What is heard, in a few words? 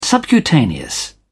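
"subcutaneous", British English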